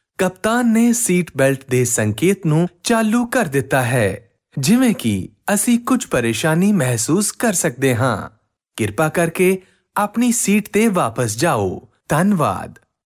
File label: Airline Prompt